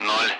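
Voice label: Speech Russian; Allied Flight; Digits; DCS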